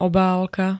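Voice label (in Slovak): "obálka", Slovak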